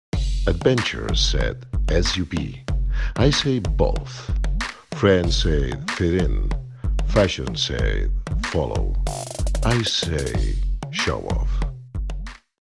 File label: male non native english car comercial